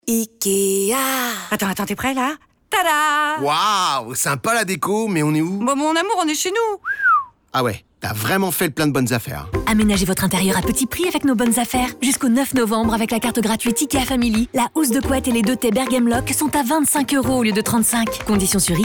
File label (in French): Radio, voix officielle, pubs radio; Ikea; Bonnes Affaires